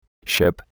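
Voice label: "ire", Indian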